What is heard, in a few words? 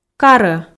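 "карӧ", Komi, female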